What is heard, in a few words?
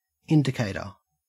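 "indicator", Australian English; pronunciations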